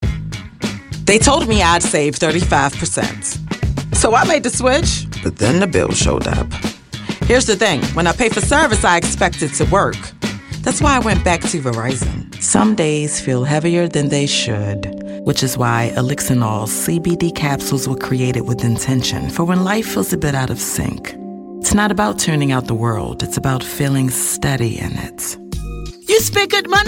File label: Commercial